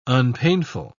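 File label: "unpainful", British English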